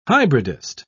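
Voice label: "hybridist", British English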